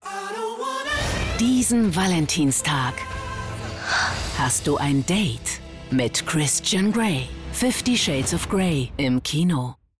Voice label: off trailer; fifty shades of grey